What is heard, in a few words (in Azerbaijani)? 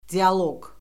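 "dialoq", Azerbaijani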